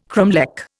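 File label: "cromlech", Indian English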